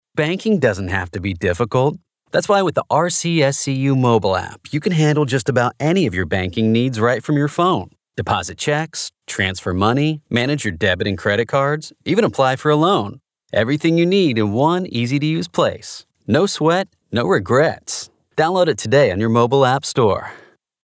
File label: Sincere